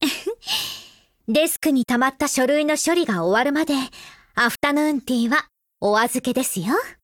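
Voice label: affinity Japanese; Springfield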